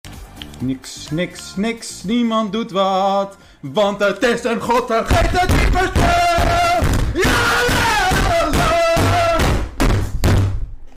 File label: raging person